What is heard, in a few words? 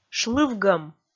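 "шлывгӧм", Komi, female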